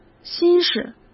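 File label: "心事", Mandarin Chinese; chinese flashcards; hsk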